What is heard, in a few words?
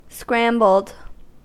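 "scrambled", US English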